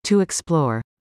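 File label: "to explore", English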